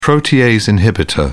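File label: "protease inhibitor", British English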